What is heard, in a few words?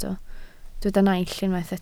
"naill", Welsh